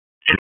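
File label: Police Spec Ops Tac, radio; wake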